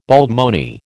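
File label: "baldmoney", American English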